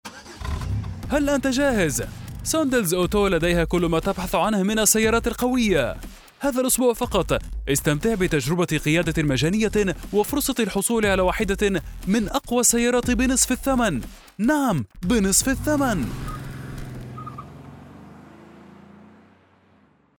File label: arabic promos